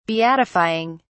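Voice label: "beatifying", British English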